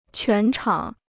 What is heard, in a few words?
"全场", Chinese